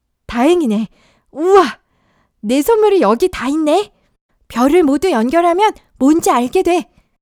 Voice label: boy